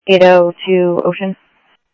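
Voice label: Police Department, scanner audio; ThompsonPeakHigh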